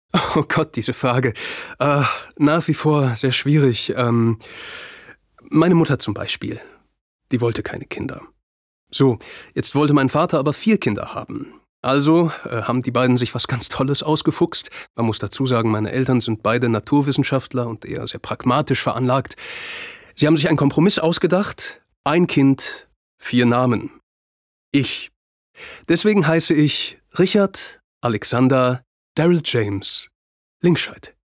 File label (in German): Natuerliche Stimme